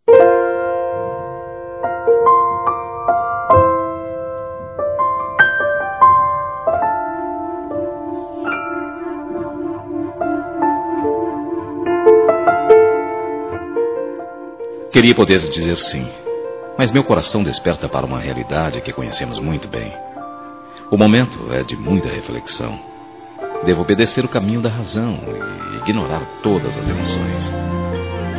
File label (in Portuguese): Masculino, Telemensagens, Românticas; Só Posso Ser Seu Amigo; Telemensagens 2017